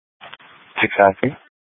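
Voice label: Sheriff, Parks, Sheriff radio scanner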